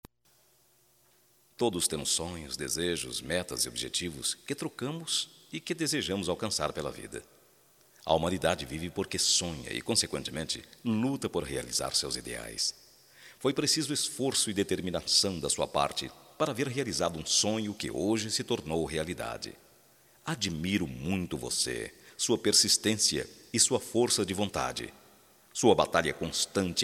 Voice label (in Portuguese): VITORIA, Só Voz, masc; Telemensagens; Telemensagens 2017